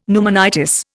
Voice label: "pneumonitis", Indian; Dictionary